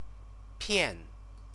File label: "pian", Chinese; CTCFL; University of Oxford